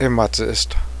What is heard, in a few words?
ear infection, Cheyenne